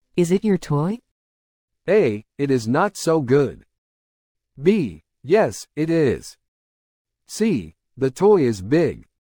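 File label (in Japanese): ノーマル, リスニング; You will hear a question or statement and three responses spoken in English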